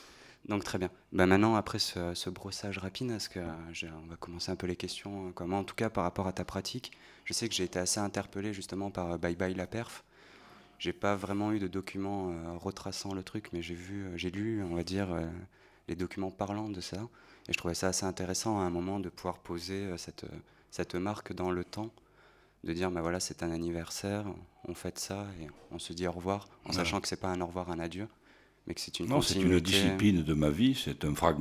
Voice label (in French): entrevue; Julien Blaine; SignalsurBruit